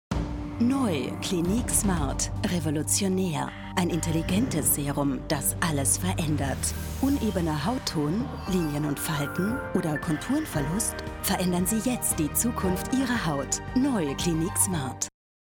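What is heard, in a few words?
german austrian female commercial; voice demos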